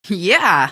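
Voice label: "yeah", female; hl2; npc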